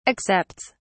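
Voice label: "accepts", English, British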